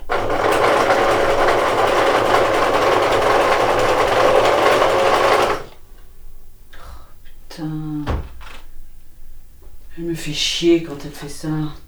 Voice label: voicetrack